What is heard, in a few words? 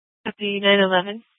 Superstition Dispatch, Mesa PD; 4 Superstition Dispatch